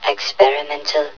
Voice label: experimental; hl1mp; XDM